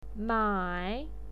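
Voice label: "mai" third tone, pinyin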